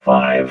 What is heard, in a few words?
"five", robot vox